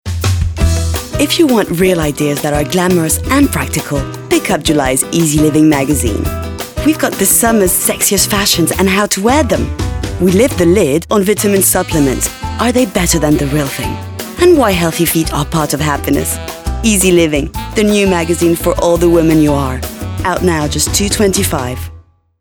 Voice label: Voix Anglaise tonique; Easy Living

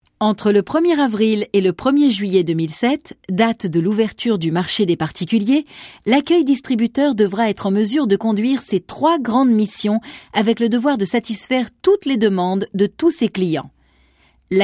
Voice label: monologue; EDF

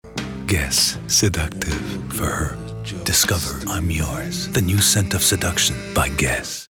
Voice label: sensuel soft voix off voice over; Guess

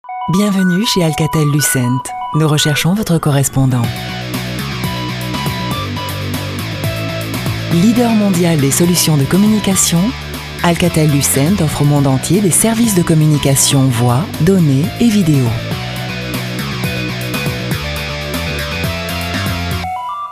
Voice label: répondeur; Alcatel

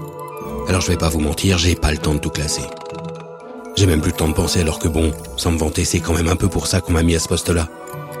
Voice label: Pub La publicité à la radio Role du cerveau parlé et grave et confidence

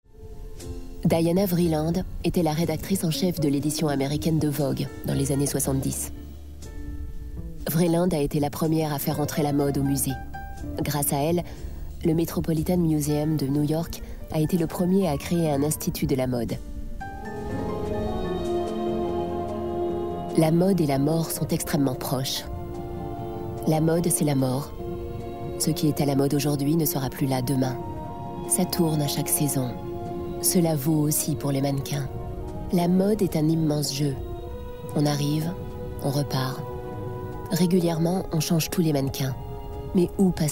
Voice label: documentaire; Arte

